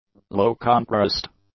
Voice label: "low-contrast", English; adjectives